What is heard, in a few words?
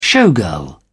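"showgirl", British English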